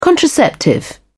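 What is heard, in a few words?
"contraceptive", British English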